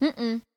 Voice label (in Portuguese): Negaçao neutra